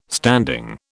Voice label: "standing", British